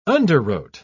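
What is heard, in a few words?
"underwrote", British English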